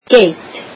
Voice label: "goat", Icelandic